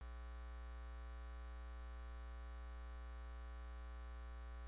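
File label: "cube", spoken word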